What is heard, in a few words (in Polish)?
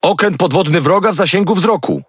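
Speech Detection Enemy Sub, Polish; World Of Warships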